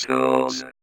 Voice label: Vocoder Words; Vengeance Samples